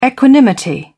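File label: "equanimity", British English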